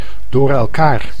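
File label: "door elkaar", Dutch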